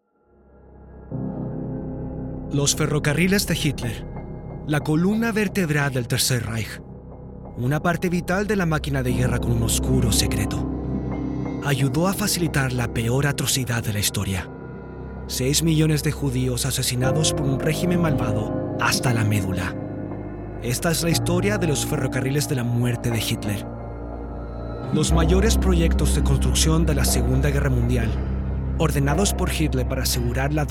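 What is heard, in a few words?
Latin American Spanish, Documentary; GoLocalise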